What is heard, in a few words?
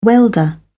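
"welder", British English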